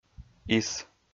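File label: "ис", Komi, male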